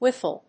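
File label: "whiffle", English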